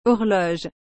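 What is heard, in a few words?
"horloge", French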